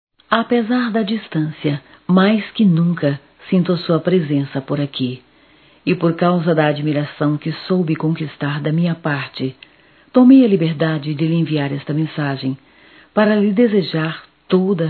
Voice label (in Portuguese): Natal distante neutro - F; Natal e ano novo; fem; Telemensagens 2017